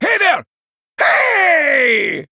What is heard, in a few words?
Male Dwarf Greetings